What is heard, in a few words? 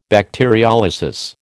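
"bacteriolysis", American English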